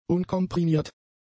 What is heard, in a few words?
"unkomprimiert", German; adjectives